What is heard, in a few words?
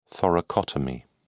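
"thoracotomy", British English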